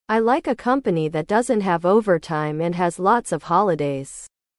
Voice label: english

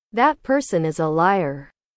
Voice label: english

